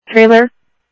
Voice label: South Scottsdale Sheriff, scanner audio; MCSO - EAST Sheriff-EastCnty